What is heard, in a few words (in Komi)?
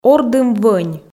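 "ордым-вӧнь", Komi, female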